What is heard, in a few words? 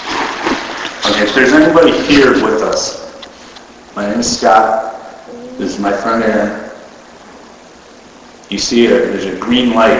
Unexplained Childs voice Inaudible AMPLIFIED